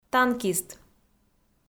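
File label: "танкист", Komi, female; audio dict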